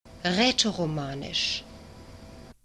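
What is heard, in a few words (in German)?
"rätoromanisch" German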